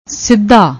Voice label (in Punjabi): "sidda", Punjabi